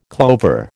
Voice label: "clover", American